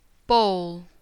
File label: "ball" "bawl"